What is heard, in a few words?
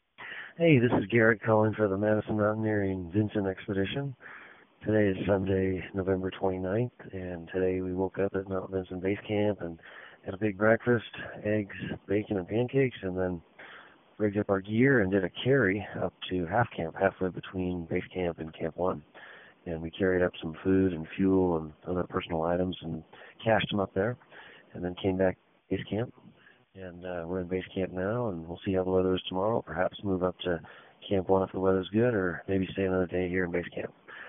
voicemail